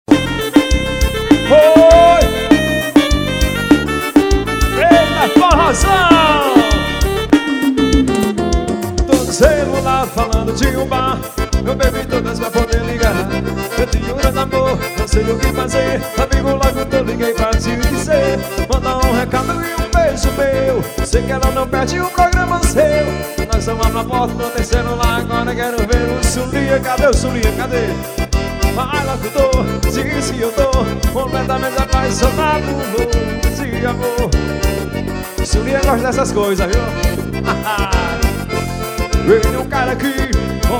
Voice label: pesado locutor

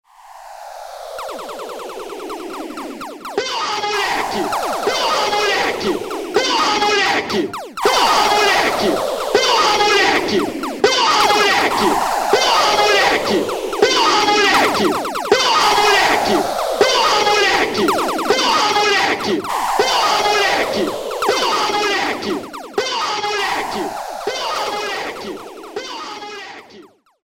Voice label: vinheta

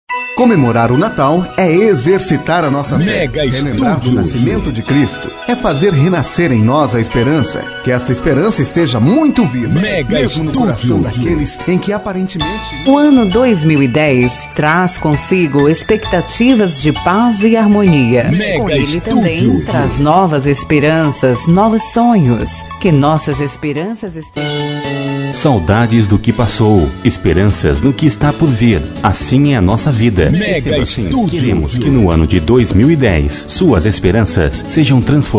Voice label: mensagens fim ano; natal

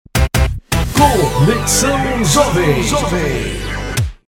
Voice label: locutor vinheta; conexao jovem

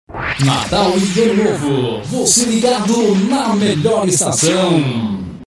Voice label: vinheta natal e ano novo com efeito fundo

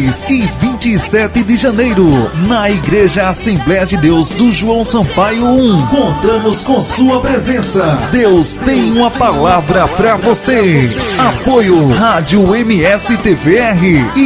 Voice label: locutor chamada congresso de senhoras

